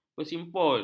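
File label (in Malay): "bersimpul", Malay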